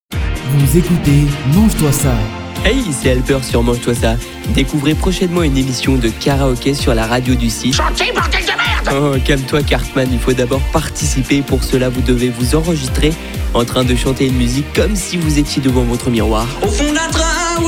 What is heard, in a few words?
promo